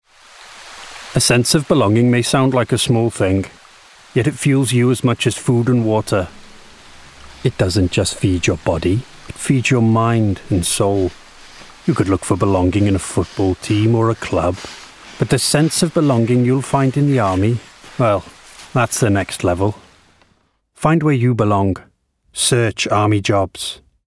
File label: Commercial Welsh; British Army